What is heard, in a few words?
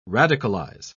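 "radicalise", British English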